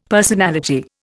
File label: "personality", Indian; Dictionary